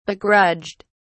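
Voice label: "begrudged", en, uk British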